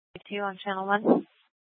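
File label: Police Department Dispatch, scanner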